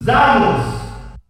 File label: German Announcer; Samus; SSB